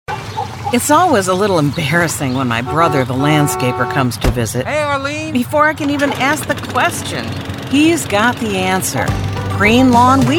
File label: commercial; preen lawn weed control